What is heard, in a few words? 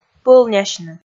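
"пӧлнясьны", Komi, female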